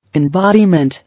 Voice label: "Embodiment", English

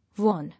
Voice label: "Worn", English

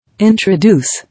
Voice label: "Introduce", English

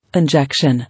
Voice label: "Injection", English

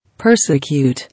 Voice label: "Persecute", English

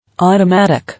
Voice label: "Automatic", English

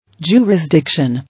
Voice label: "Jurisdiction", English

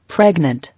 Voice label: "Pregnant", English

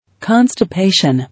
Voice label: "Constipation", English

